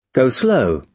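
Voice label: "go-slow", British English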